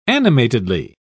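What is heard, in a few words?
"animatedly", British English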